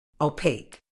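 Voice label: "opaque", medical English, British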